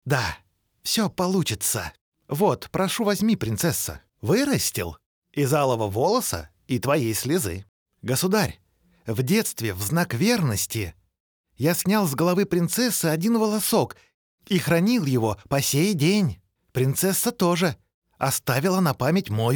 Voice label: russian m character; voice demos